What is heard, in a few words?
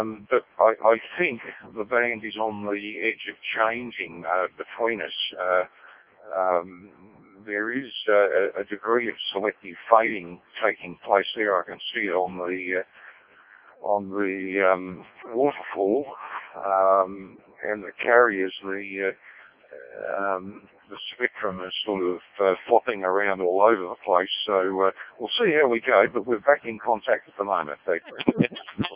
decoded speech, parrot